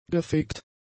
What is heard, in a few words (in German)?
"gefegt", German; adjectives